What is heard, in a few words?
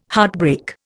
"heartbreak", Indian